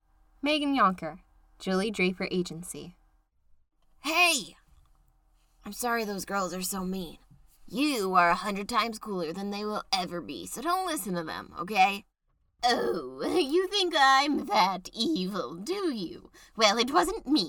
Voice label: VO animation